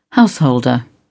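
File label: "householder", British English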